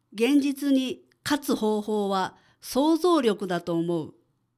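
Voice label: female; 現実に勝つ方法は、想像力だと思う。; クール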